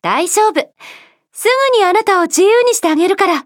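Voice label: start, Japanese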